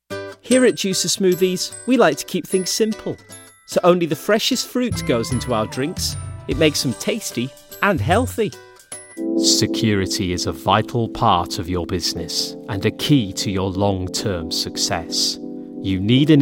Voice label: Corporate

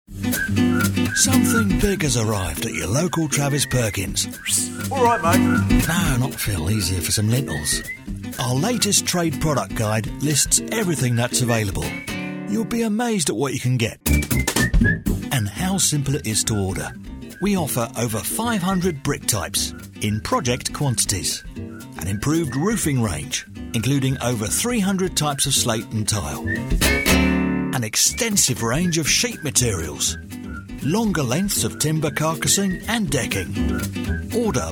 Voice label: Estuary; Travis Perkins

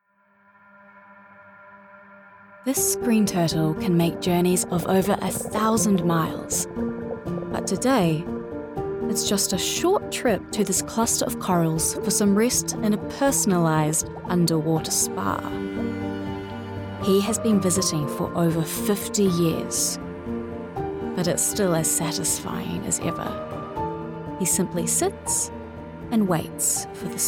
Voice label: Documentary reel

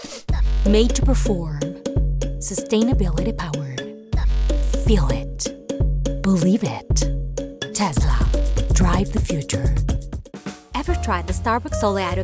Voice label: US English Commercial

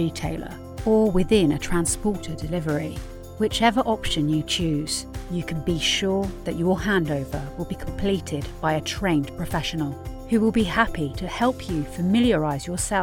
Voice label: Corporate

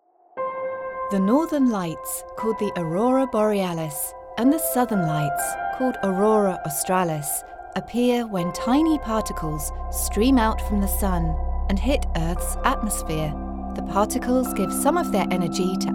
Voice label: Narration

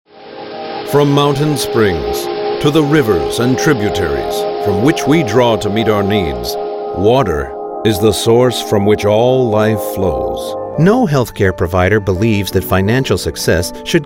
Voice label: Narration

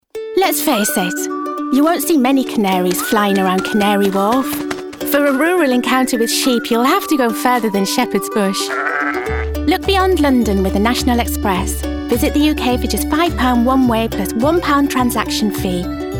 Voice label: Commercial

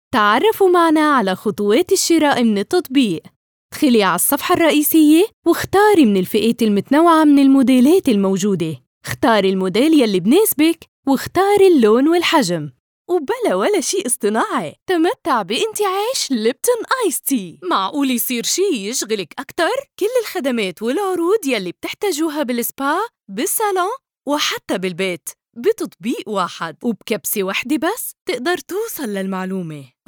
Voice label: Lebanese accent

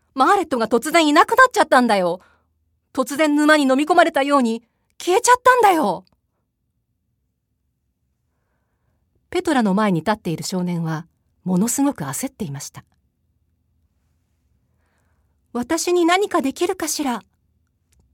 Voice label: Audio Book

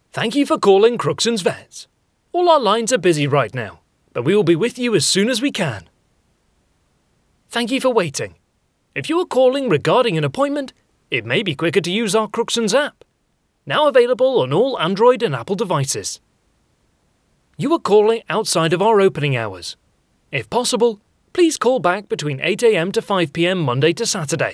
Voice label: IVR

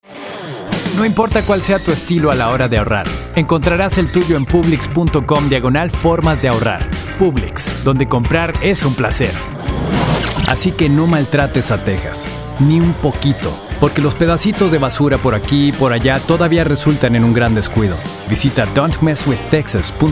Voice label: conversational millenial

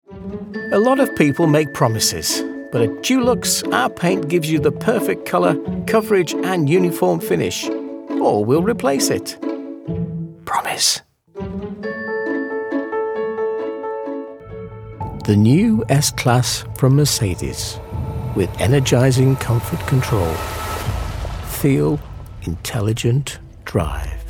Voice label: Commercial

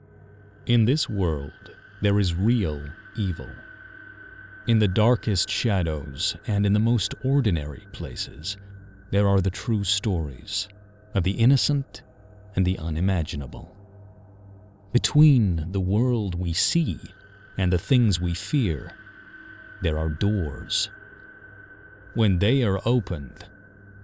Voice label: documentary

